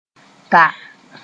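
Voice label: "ta", Mooré; daub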